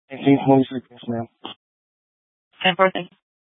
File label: Sheriffs Office, scanner